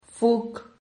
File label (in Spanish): "fuc", Silabas